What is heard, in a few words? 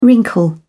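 "wrinkle", English, British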